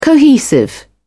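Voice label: "cohesive", British English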